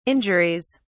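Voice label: "injuries", British English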